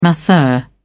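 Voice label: "masseur", British English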